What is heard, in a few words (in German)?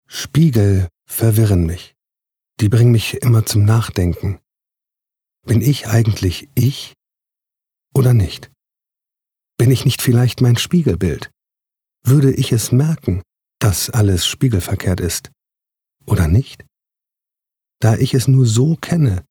Innerer Monolog